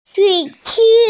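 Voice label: "shui3chi2", Mandarin Chinese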